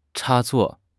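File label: "插座", Chinese; HSK6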